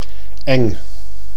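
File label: "eng", Dutch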